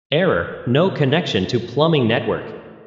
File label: no connection with reverb